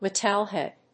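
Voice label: "metalhead", English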